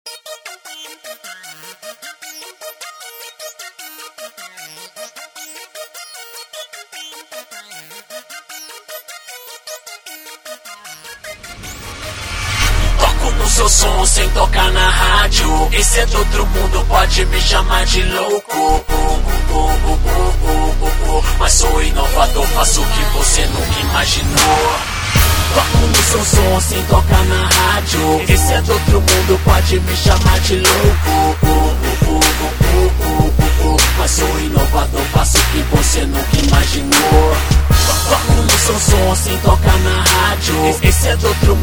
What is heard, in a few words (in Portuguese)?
chamada dj